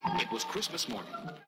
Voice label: it was christmas morning male; Voice; xmas

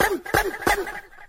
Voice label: "boom boom boom" cartoon, Voice

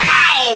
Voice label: ow male

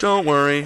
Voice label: "don't worry", gay robot; Voice

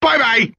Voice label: "bye bye" male; Voice; expletives

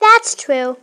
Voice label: that's true, girl

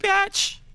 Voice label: "bitch", hi-pitch; expletives

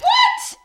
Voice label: "what", Voice

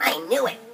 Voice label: i knew it cartoon

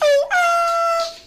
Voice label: "oh oh" male; ooh ahh

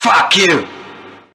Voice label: fuck you SHOUT, expletives

